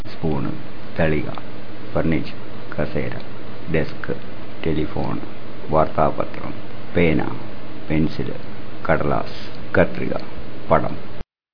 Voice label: malayalam objects

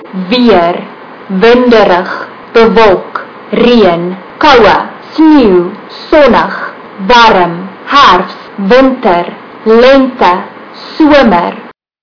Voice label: afrikaans; weather

